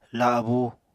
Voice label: "labu", Apatani

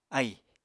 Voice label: "ahì", Apatani

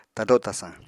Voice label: "tado tasañ", Apatani

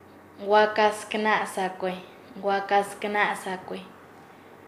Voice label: "senorita de raza blanca", Nasa Yuwe; paez

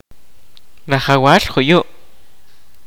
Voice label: "Lord of the mountain", kaqchikel

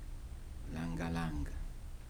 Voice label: "bright", Kibembe

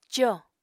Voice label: "còo", Apatani

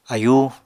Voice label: "ayú", apatani

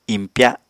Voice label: "impya", Apatani

